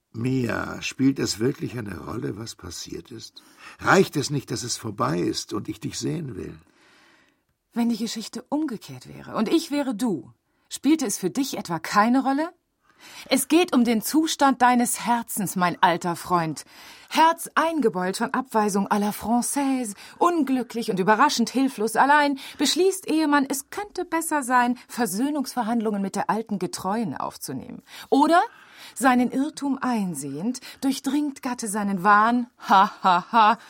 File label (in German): hoerspiel; sommer ohne maenner